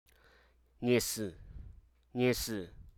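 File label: Hruso Aka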